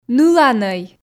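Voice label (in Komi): ныланӧй, Komi, female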